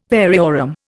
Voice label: "variorum", Indian

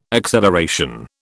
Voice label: "acceleration", British

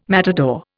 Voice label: "matador", Indian

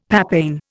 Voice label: "papain", Indian; Dictionary

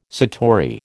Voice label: "satori", American

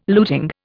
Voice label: "luting", Indian

